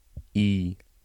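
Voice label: English alphabet e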